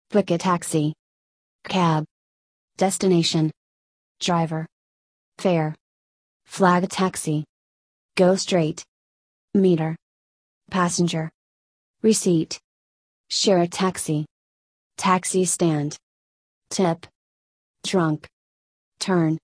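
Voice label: American; taxi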